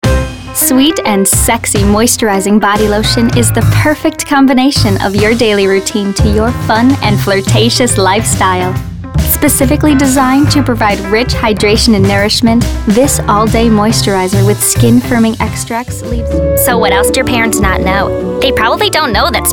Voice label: Commercial